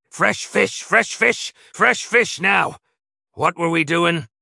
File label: happy, speech; fertig classic games; schooner